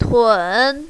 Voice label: "tun3", mandarin, female

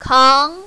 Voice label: "kang2", mandarin, female

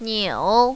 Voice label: "niu3", mandarin, female

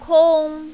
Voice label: "kong1", mandarin, female

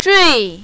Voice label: "zhui4", mandarin, female

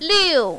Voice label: "liu4", mandarin, female

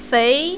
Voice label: "fei2", mandarin, female

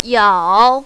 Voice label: "yao3", mandarin, female

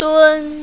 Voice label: "dun1", mandarin, female

